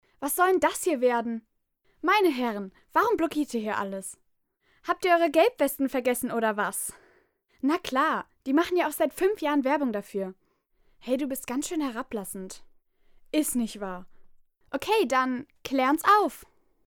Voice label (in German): synchro realfilm; Kindersprecher